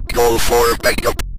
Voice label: "call for backup", Synth Voice; Kenney's Sound Pack; Synth Voice 1